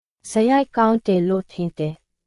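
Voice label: Myanmar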